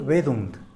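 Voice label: "bedund", hummingbird, Tlacochahuaya Zapotec; Tlacochahuaya Zapotec Talking Dictionary; animals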